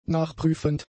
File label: "nachprüfend", German; adjectives